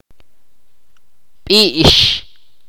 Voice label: "semilla" in Ch'orti'